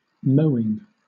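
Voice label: "mowing", British English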